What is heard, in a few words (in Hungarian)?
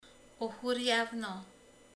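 "repülő", Hungarian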